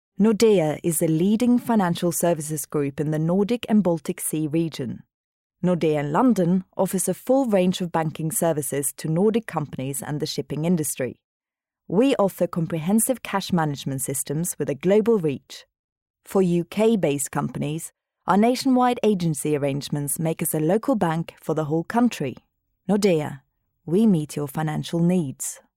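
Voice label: Norwegian Norway female English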